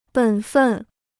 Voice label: "本分", Chinese

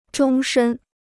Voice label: "终身", Chinese

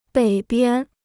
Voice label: "北边", Chinese

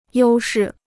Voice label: "优势", Chinese

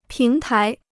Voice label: "平台", Chinese

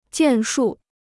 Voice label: "建树", Chinese

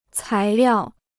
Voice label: "材料", Chinese

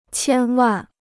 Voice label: "千万", Chinese

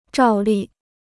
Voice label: "照例", Chinese

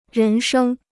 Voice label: "人生", Chinese

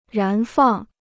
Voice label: "燃放", Chinese

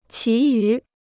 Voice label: "其余", Chinese

